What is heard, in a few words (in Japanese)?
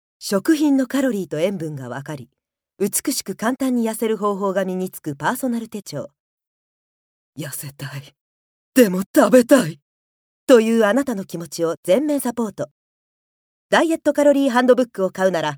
書店CM